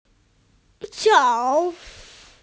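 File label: "zou3", Mandarin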